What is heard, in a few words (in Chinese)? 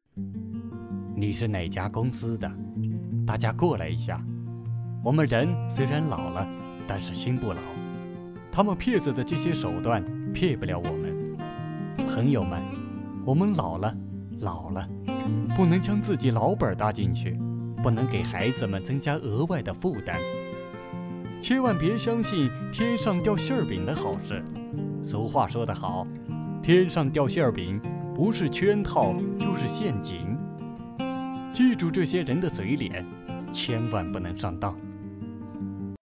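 各种广告音, 品牌广告配音 青年（18-35） 普通话 男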